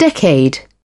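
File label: "decade", British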